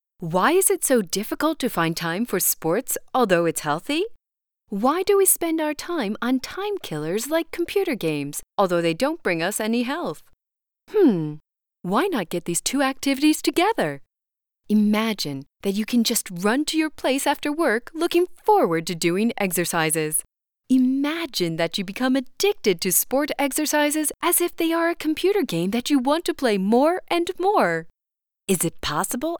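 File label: English USA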